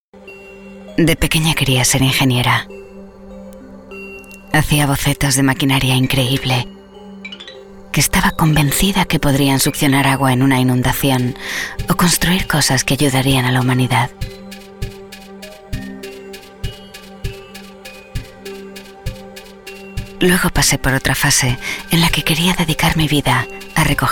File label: DUBBING CHARACTERS